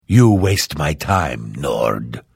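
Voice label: Hello, Vo; Morrowind GOTY GOG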